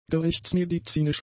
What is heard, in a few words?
"gerichtsmedizinisch", German; adjectives